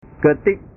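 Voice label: "ketik", Indonesian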